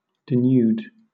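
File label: "denude", British English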